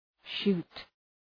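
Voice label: "shoot", English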